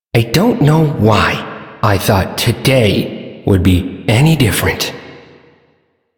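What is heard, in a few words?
Male Sedentary; Warframe; Duviri; Duviri Ambient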